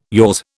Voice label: "yours", British